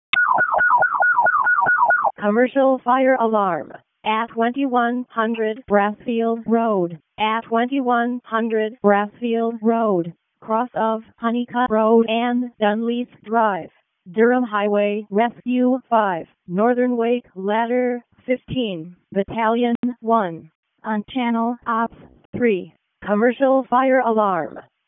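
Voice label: Fire Department Alert, p25; wake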